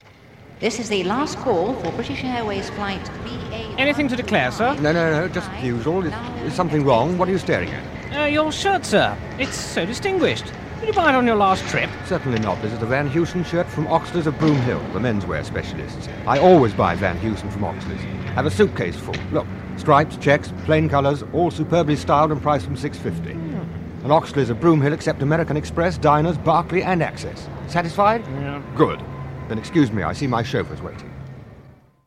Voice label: Radio Advert; Radio Hallam; Oxleys Of Broomhill